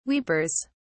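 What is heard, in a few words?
"weepers", British English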